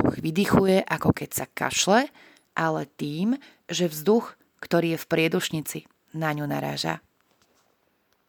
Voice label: Hlas je, zensky hlas voice over

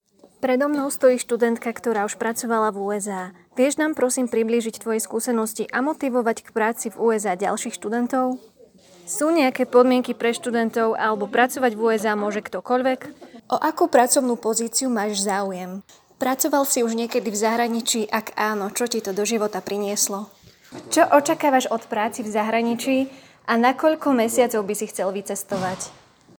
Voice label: Prednáška o brigáde v USA, zensky hlas voice over

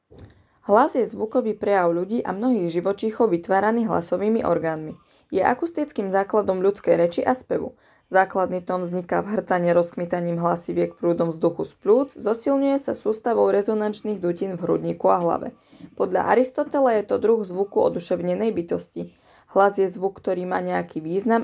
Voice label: reklamny hlas

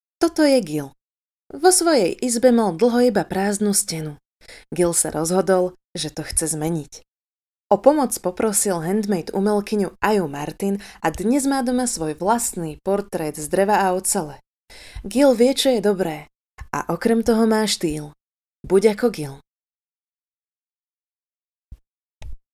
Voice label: Hlas, zensky hlas voice over

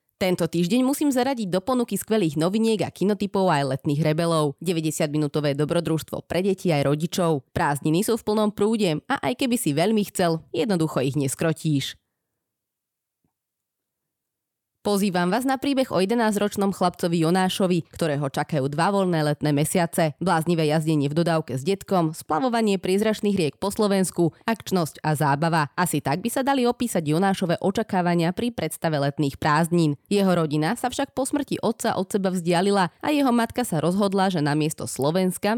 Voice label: Reklamny hlas, zensky hlas voice over

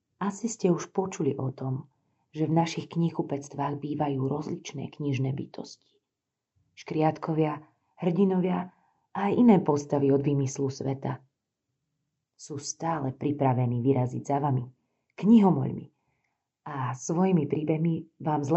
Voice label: zensky hlas voice over

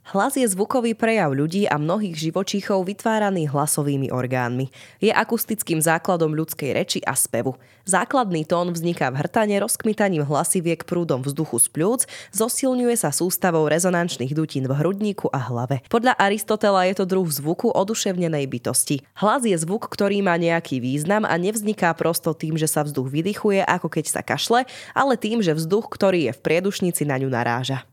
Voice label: nahravka, zensky hlas voice over

